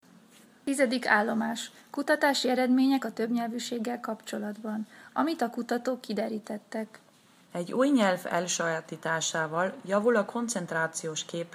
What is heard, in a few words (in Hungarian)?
magyar; Station 10 forschungsergebnisse zu mehrsprachigkeit